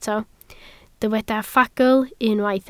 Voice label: "ffagl", Welsh